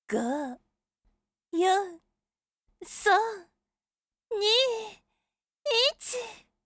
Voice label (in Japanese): count, 射精前カウントダウンボイス